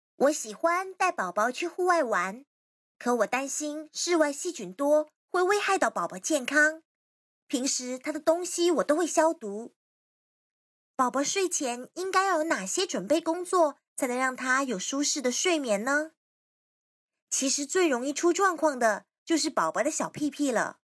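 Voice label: Chinese history voice over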